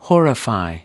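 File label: "horrify", American English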